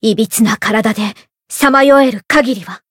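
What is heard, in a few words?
variable chant, Japanese; eva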